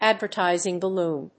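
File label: "advertising balloon", English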